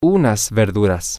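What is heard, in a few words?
male, spanish; some Vegetables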